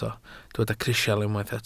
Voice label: "crisial", welsh

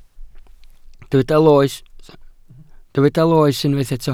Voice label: "loes", Welsh

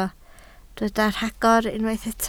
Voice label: "rhagor", Welsh

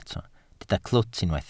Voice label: "clwt", Welsh

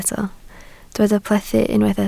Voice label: "plethu", Welsh

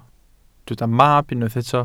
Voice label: "mab", Welsh

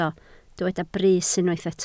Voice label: "brys", Welsh

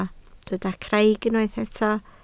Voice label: "craig", Welsh

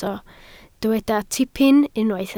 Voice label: "tipyn", Welsh

